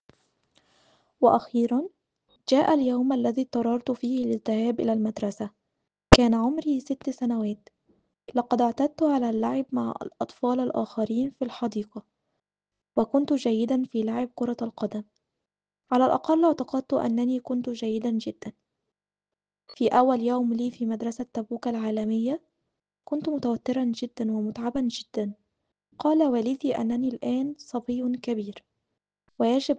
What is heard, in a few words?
Arabic